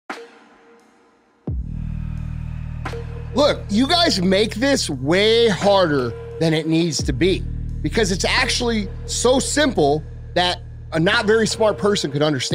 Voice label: Powerful Motivational Speech Motivation Video; Success Equation; Andy Frisella